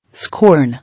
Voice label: "Scorn", English

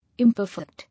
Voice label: "Imperfect", English

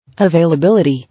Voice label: "Availability", English

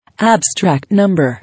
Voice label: "Abstract number", English

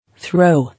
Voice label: "Throe", English

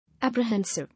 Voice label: "Apprehensive", English

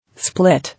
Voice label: "Split", English

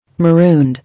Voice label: "Marooned", English

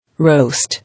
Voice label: "Roast", English

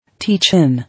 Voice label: "Tea", English

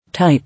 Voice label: "Type", English

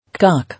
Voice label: "Cock", English

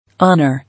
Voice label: "Honour", English

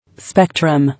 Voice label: "Spectrum", English; pl. –tra or -trums

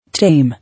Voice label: "Tame", English

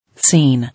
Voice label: "Scene", English

